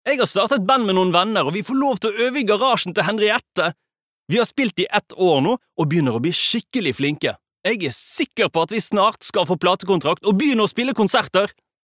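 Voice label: no dub young adult, Norwegian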